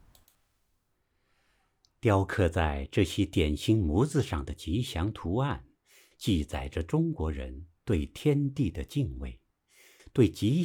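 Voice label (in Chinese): 男专题片配音磁性沉稳抒情; 舌尖上的中国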